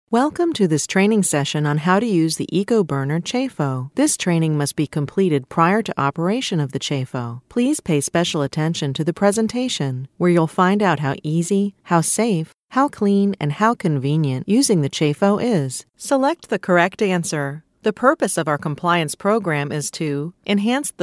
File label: eLearning, Female; Narration, eLearning